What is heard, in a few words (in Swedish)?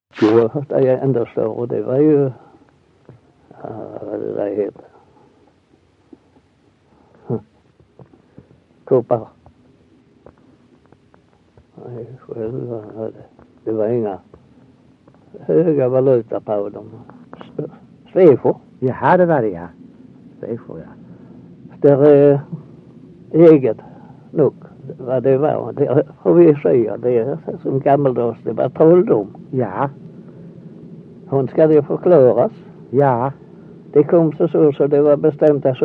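Össjö Skåne, Svenska sagor, dialekter; Folkminnen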